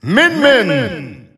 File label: Dutch Announcer; Min Min; SSBU